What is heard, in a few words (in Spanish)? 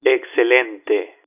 "excelente", filt, telephone